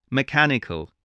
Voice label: "mechanical", spelling voice